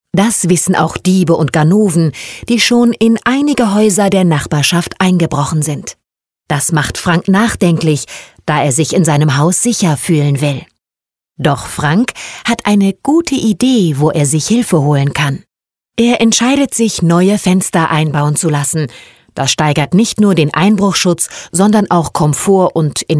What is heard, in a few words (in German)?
Animation amüsiert erklärend